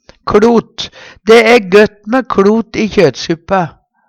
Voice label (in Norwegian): kLot, Numedalsmål; Dæ æ gøtt mæ kLot i kjøtsuppa.